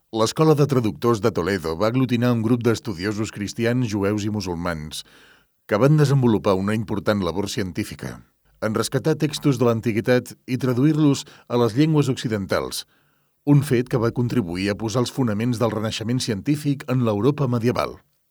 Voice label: locutor catalan catalonian voiceover